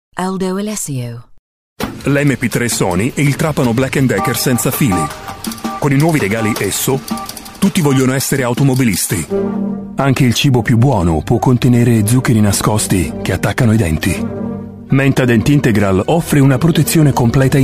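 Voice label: Italian, English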